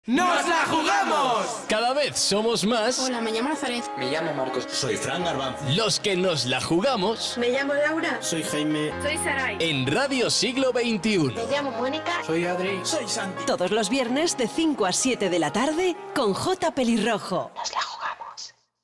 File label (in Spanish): promo; OYENTES